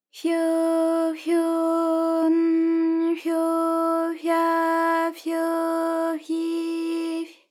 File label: fyo fyo n fyo fya fyo fyi fy, JPN; ALYS